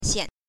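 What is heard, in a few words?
"xian", Mandarin Chinese, neutral tone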